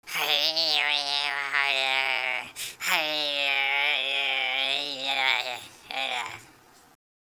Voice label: angry; Worms3D; Whoopsie

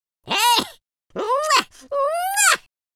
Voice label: happy, speech, Welsh

